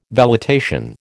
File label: "velitation", American